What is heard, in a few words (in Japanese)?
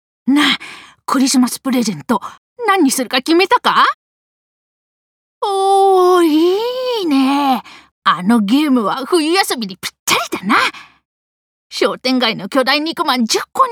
食べることで頭がいっぱいな少年